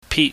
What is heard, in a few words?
"pit", Chinese